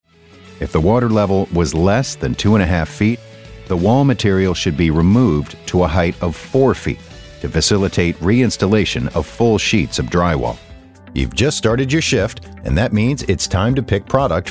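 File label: eLearning, voice actor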